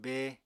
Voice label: "bée", Apatani